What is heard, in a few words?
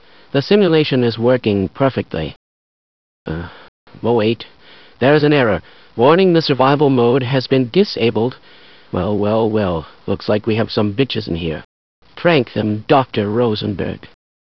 scientist, disabled; svencoop; bts rc